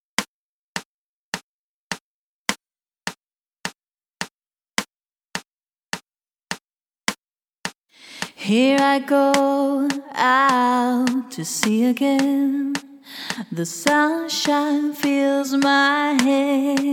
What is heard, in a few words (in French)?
voix + clic